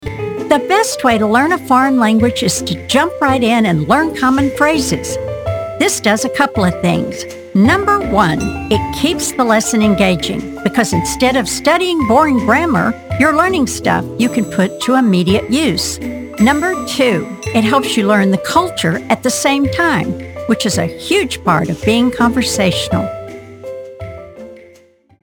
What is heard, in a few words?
Narration; Foreign Langauge